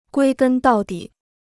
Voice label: "归根到底", Chinese

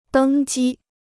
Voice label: "登机", Chinese

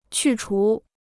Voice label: "去除", Chinese

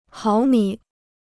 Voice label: "毫米", Chinese

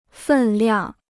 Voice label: "分量", Chinese

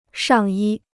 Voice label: "上衣", Chinese

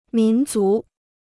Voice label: "民族", Chinese

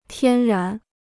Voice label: "天然", Chinese

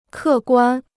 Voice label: "客观", Chinese

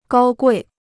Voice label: "高贵", Chinese

